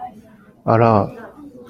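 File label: "alarm", JPN, male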